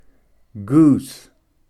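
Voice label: "goose", English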